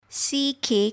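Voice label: "ck", English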